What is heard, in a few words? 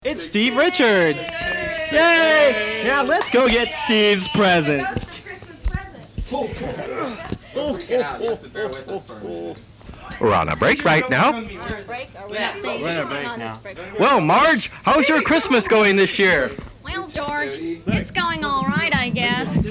Funny Cartoon Voices; KWWT CHRISTMAS SHOW 1987; Richards Arrives